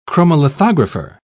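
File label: "chromolithographer", British English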